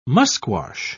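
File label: "musquash", British English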